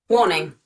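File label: "warning", English; Titanfall 2; Northstar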